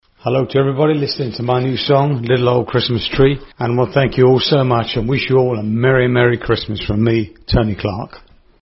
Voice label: radio id, no backing